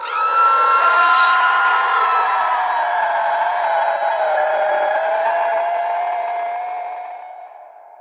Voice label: dark vampire; DraculasCastleWD; wazdan